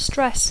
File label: "stress", English